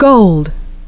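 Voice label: "gold", English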